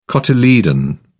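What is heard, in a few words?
"cotyledon", British English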